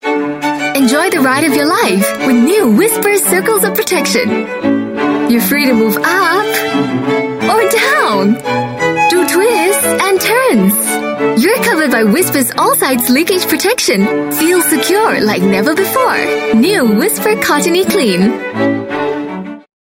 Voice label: Asian English Whisper TVC

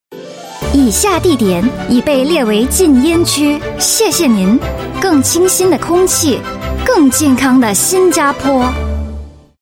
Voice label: Mandarin

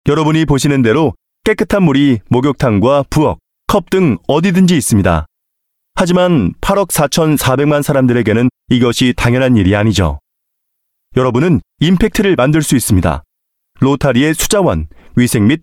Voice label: Korean

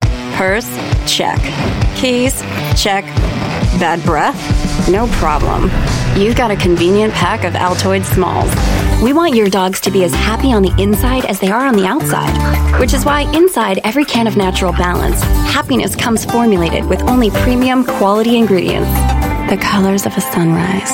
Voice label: US English Commercial, English US Female

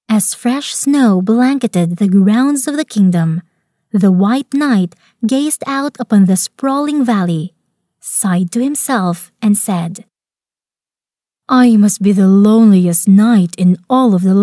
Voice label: Filipino English Audiobook

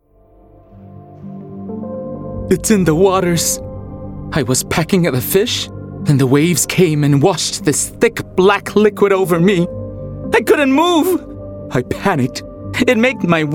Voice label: Asian English Character Voices Scared Bird

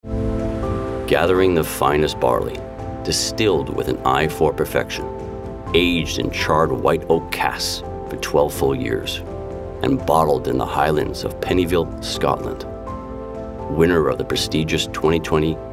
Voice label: US English whisky; Pennyville Whisky

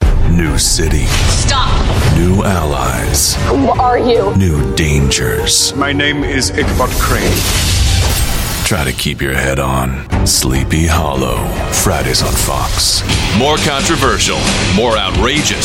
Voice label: US English Promos Reel, Male

